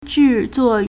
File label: "zhi4 zuo4", Chinese